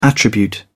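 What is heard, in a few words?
"attribute", British English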